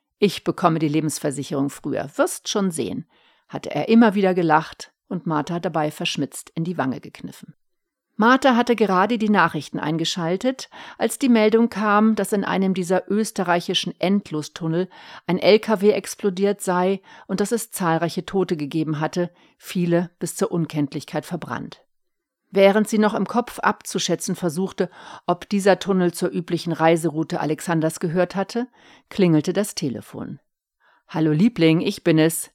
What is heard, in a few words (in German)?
Hoerprobe Hoerbuch; hoerproben hoerbuchsprecherin